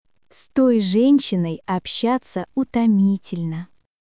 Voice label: Russian, russian phrases insults; ロシア語のネガティブなフレーズ